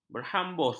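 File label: "berhambus", Malay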